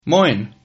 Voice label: hello, Sylt Frisian